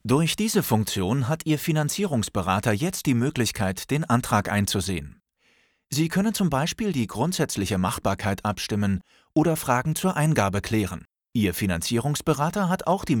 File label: tutorial; mobrents